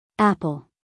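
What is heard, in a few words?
"apple", American English, female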